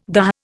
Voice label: "dah", Indian

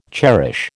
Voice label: "cherish", American

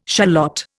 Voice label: "shallot", Indian

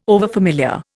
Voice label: "overfamiliar", Indian

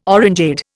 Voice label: "orangeade", Indian

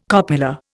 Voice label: "copula", Indian

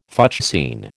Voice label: "fuchsine", American

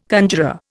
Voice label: "conjuror", Indian

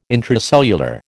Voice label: "intracellular", American

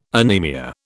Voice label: "anemia", British

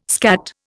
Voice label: "skat", Indian

